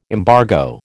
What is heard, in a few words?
"embargo", American